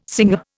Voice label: "singer", Indian